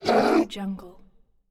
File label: strong hi tech alien distressed voice